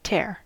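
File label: "tear", American English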